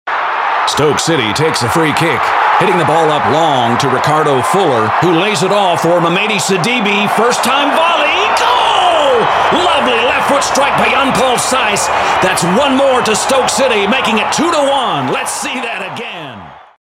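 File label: English US, male, sports commentary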